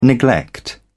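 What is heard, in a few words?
"neglect", British English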